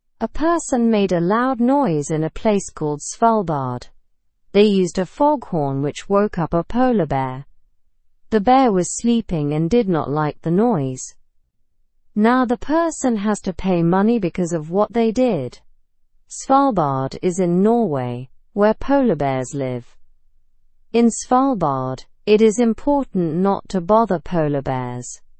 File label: learn english through news beginner slow; person fined for waking polar bear